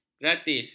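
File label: "gratis", Malay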